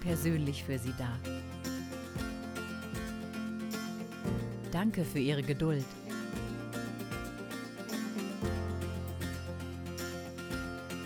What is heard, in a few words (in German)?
Warteschleife Hotel